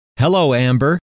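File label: hello, male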